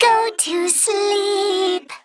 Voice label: Female Mech Play

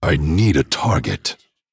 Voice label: Male Dragon ERROR TARGET

